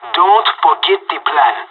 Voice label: radio lock n load; Counter Strike Model Pack; CS:GO